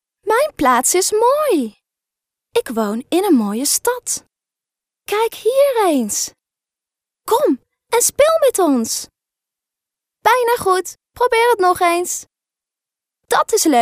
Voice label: NL fem children, test audio